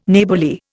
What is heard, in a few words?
"Neighbourly", Indian